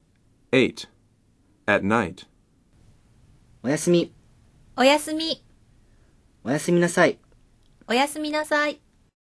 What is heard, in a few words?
Dialog